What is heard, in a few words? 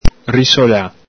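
"risola", Venetian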